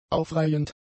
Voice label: "aufreihend", German; adjectives